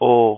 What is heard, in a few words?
"o", letter, hindi